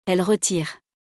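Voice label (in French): "elles retirent", French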